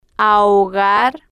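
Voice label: "ahogar", Spanish, female, slow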